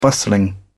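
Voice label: "bustling", English, British English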